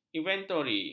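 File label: "inventori", Malay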